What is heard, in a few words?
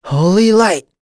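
Vox Casting, voices heroes English; Lucias; kingsraid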